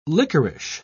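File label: "lickerish", British English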